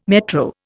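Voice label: "metro", English, Indian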